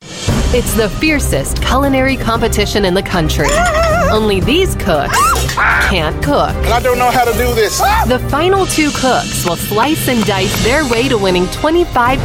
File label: US English Promo